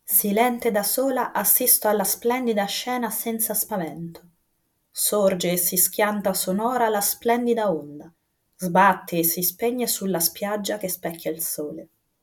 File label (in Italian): sibilanti maono pd200x